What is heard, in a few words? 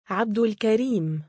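"3abdulkariim" male, Arabic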